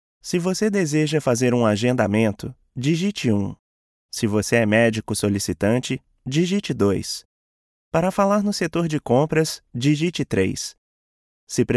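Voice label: Brazilian Portuguese, IVR; GoLocalise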